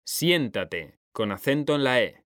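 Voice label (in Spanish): "siéntate", male; mekanta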